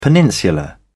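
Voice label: "peninsula", English, British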